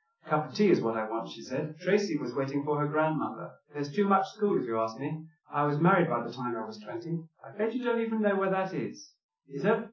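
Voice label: male speech; SiSEC10; underdetermined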